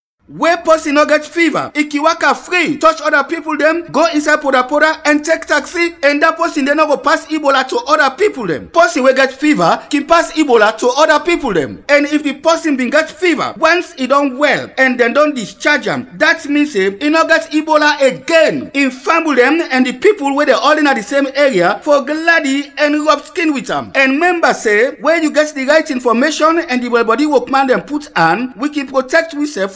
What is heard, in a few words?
Krio, radio spots; ebola